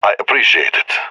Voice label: thankful; Counter Strike; CS:GO; leet epic